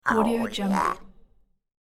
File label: cartoon crow oh yeah; cartoon sounds